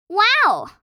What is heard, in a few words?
Teen girl surprised wow; misc human sound effects